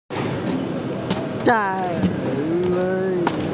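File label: "da4yue1", Mandarin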